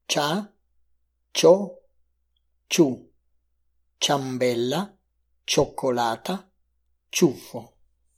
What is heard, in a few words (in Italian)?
fonetica suoni cia cio ciu